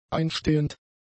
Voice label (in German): "einstehend", german; adjectives